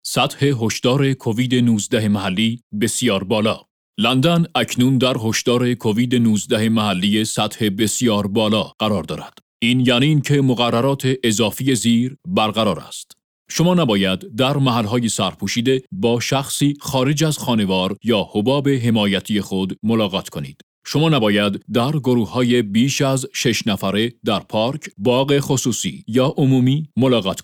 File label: FARSI Covid London Alert Very High